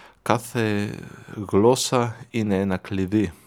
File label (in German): griechisch